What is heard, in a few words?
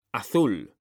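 "AZUL", Spanish, male; mekanta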